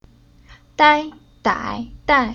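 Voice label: "Dai", Chinese syllable; Уроки фонетического блока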